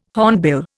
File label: "hornbill", Indian